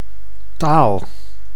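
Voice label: "taal", Dutch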